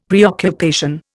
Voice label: "preoccupation", Indian; Dictionary